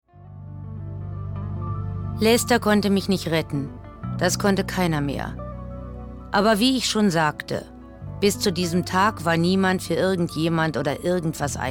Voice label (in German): Synchron